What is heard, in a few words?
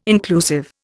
"inclusive", Indian